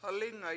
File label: for a long time, washo; halIInga